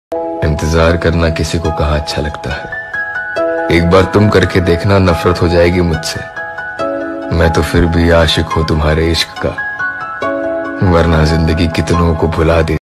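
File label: hindi dialogue ringtone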